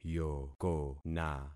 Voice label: "yokona", concat syllables; Affixing experiment

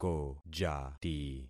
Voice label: "kojati", concat syllables; Affixing experiment

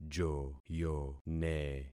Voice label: "joyone", concat syllables; Affixing experiment

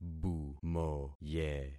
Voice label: "bumoye", concat syllables; Affixing experiment

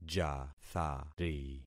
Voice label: "jathari", concat syllables; Affixing experiment

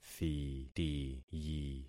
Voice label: "thitiyi", concat syllables; Affixing experiment

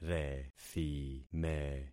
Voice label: "rethime", concat syllables; Affixing experiment

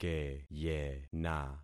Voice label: "keyena", concat syllables; Affixing experiment

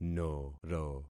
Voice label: "noro", concat syllables; Affixing experiment

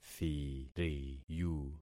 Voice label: "thiriyu", concat syllables; Affixing experiment

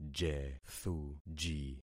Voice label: "jethuji", concat syllables; Affixing experiment

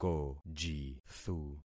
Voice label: "kojithu", concat syllables; Affixing experiment

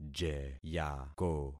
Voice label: "jeyako", concat syllables; Affixing experiment

